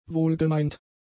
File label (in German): "wohlgemeint", German; adjectives